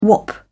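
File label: "whop", British English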